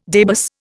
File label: "debus", Indian